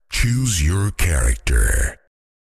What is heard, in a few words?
Deep male voice choose your character